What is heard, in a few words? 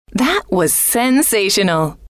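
that Was Sensational, female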